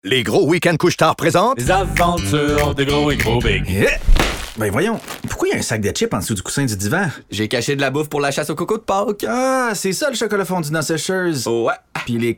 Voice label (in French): Radio; Paques; QC